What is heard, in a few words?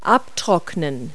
"dry", verb; Multi-Lingual Talking Picture Dictionary